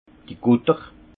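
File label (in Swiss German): "gutter", Haut Rhin; vin; bonbonne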